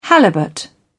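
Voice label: "halibut", British English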